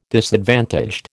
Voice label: "disadvantaged", American